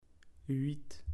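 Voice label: "huit", French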